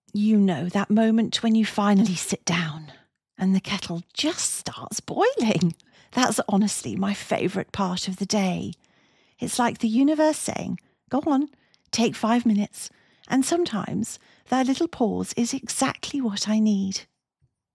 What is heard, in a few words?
Conversational Natural Human Chat, voiceovers